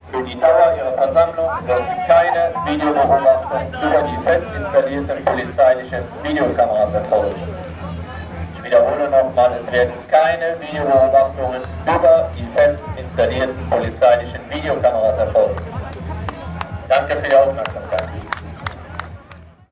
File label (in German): polizeidurchsage; versammlungsgesetz-nrw-stoppen-grundrechte-erhalten; videoaufnahmen